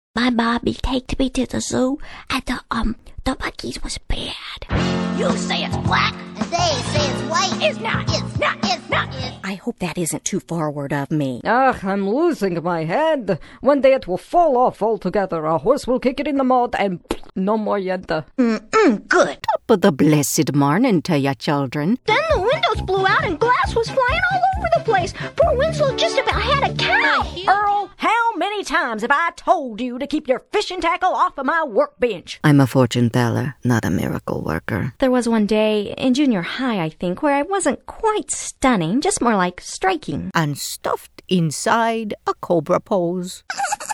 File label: Animated Characters